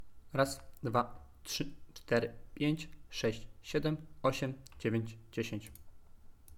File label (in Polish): popfiltr